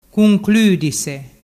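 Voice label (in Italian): "cuncludise", Vernazzese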